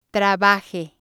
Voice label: "trabaje", Spanish

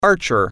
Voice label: "archer", English

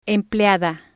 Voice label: "empleada", spanish

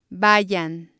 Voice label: "vayan", Spanish

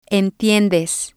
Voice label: "entiendes", Spanish

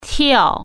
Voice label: "jump", Chinese

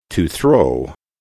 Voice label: "to throw", English

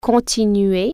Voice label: continue, french

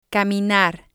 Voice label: "caminar", spanish

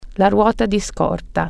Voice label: spare tire, italian

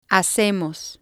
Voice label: "hacemos", spanish

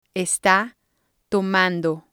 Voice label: "está tomando", Spanish

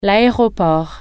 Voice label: airport, french

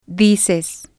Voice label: "dices", Spanish

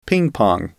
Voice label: "ping Pong", English

